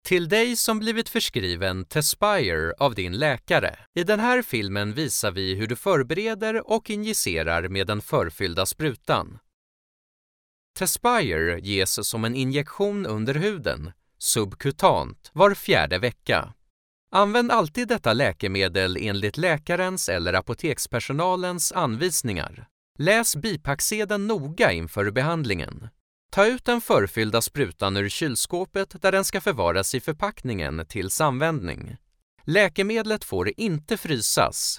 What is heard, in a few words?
swedish medical narration, voice actors; professioneller schwedischer; Medizinische Erzählung